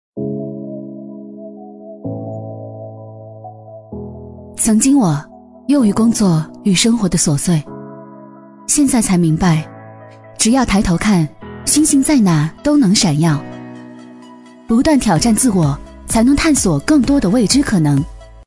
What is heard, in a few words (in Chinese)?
女, 人物独白, 微电影